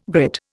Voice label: "grit", Indian